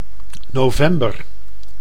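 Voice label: "november", Dutch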